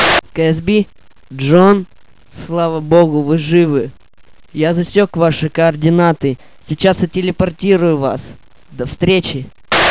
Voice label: radio